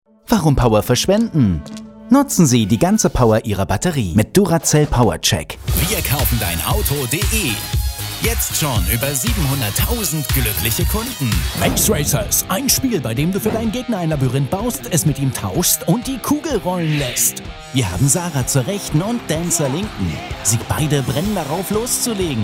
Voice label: German

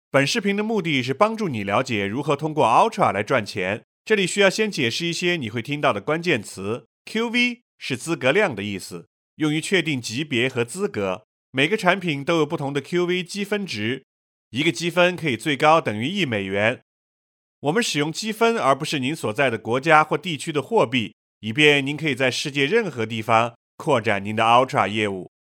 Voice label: Chinese - Mandarin

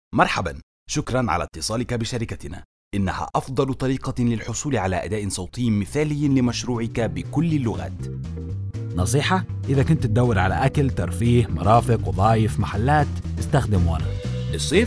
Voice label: Arabic

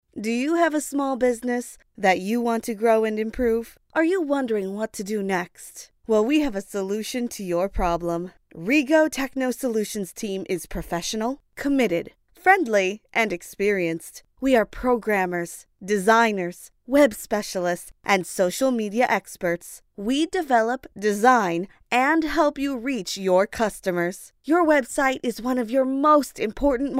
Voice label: English American

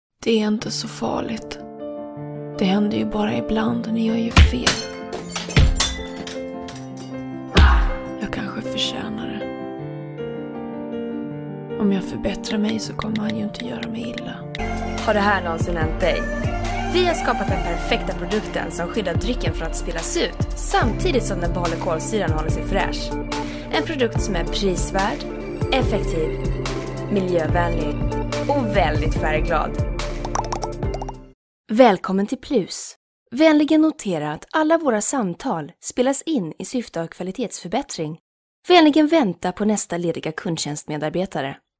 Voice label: Swedish female

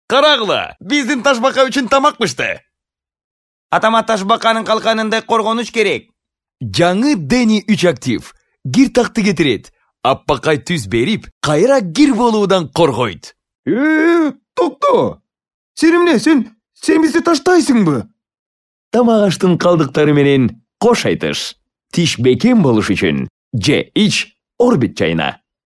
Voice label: Kyrgyz male

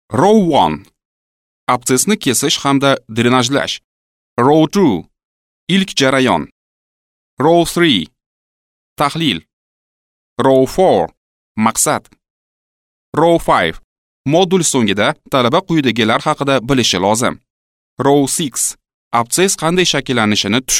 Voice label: Uzbek

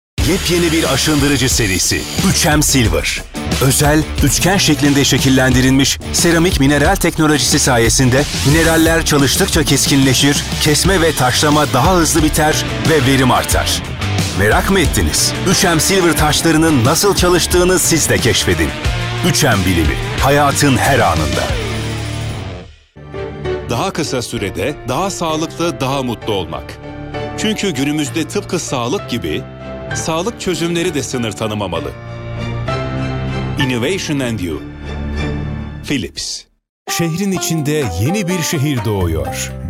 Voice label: Turkish male

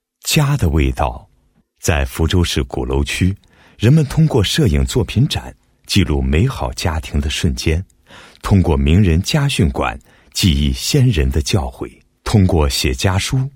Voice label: Chinese Mandarin

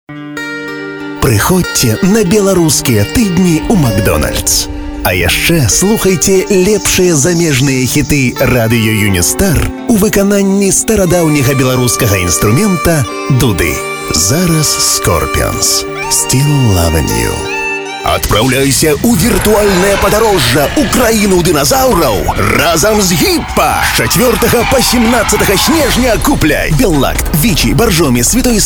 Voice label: Belarusian male

